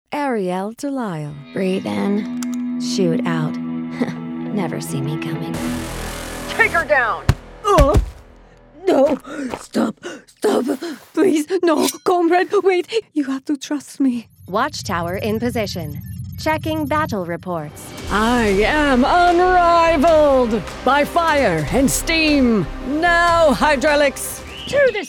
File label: Video Game Character